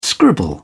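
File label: "scribble", British English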